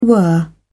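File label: "were", British English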